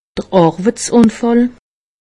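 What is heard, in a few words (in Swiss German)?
"arweitsunfall", Alsatian; medecine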